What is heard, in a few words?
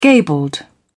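"gabled", British English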